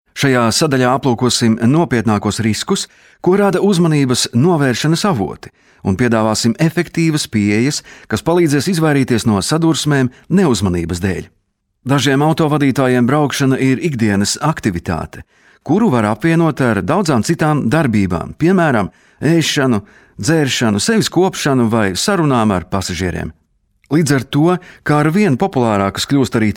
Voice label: latvian m narration, latvian voice demos

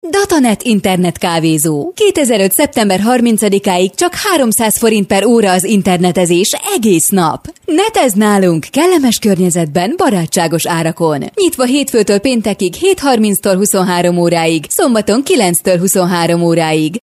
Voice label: hungarian f commercial; voice demos

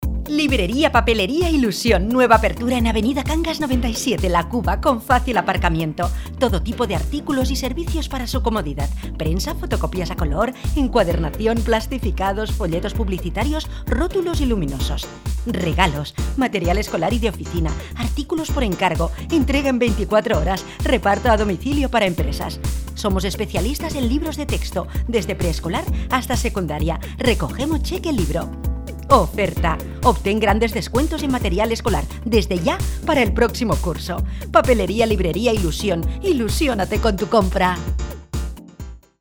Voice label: spanish f commercial, spanish voice demos

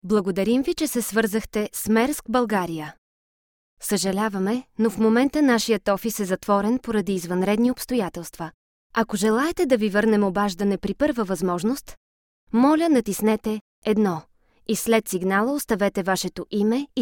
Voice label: bulgarian f ivr; voice demos

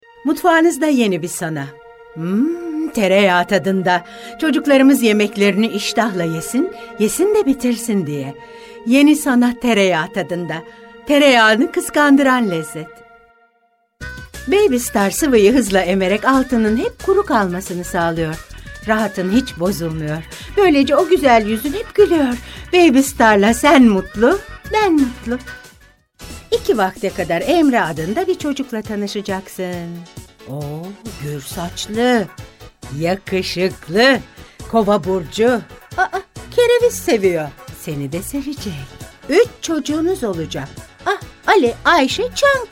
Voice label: turkish f commercial; voice demos

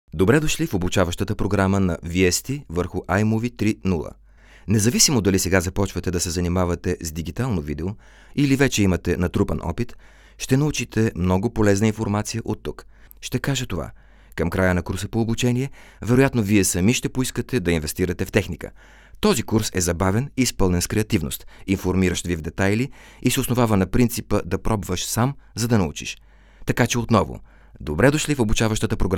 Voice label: bulgarian m e-learning; voice demos

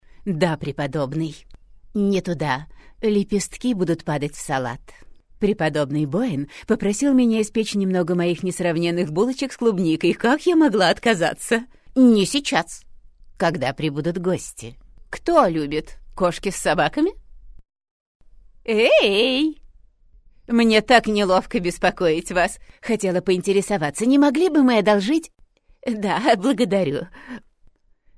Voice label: russian f character; voice demos

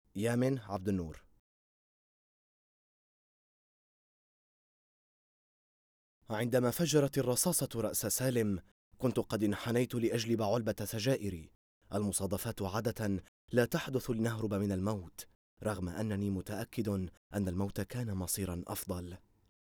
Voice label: arabic syrian m narration; voice demos

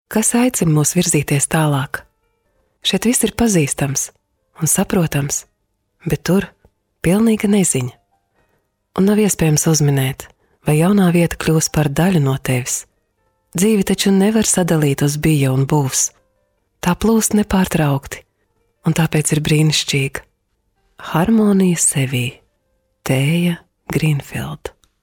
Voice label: latvian f narration, latvian voice demos

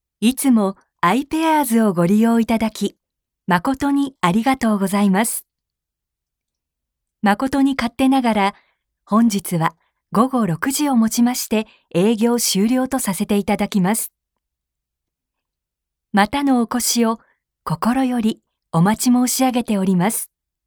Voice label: japanese f narration; voice demos

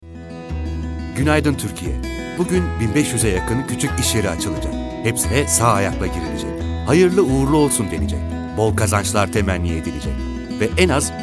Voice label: turkish m commercial

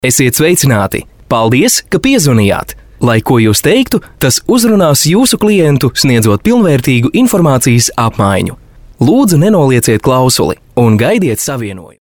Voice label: latvian m commercial, latvian voice demos

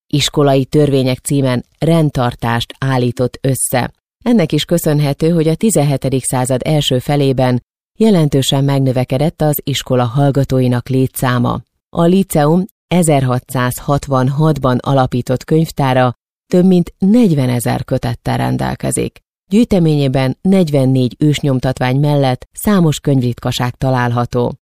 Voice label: hungarian f narration; voice demos

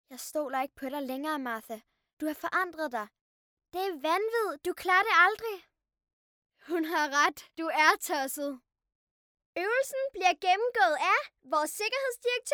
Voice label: danish kid character, voice

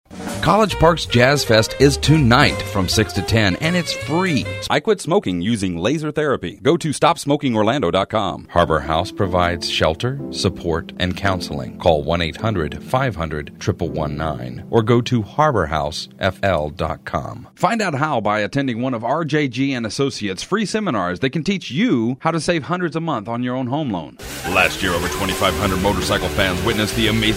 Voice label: english us m commercial, voice demos

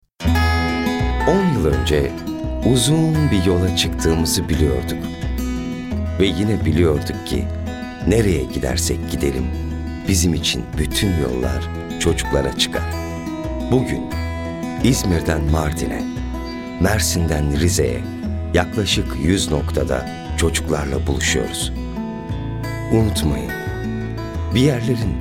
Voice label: turkish m commercial; voice demos

